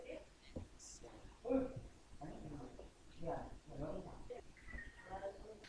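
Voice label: "book", spoken word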